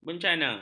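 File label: "bencana", Malay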